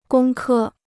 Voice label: "工科", Chinese

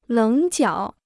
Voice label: "棱角", Chinese

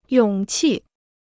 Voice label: "勇气", Chinese

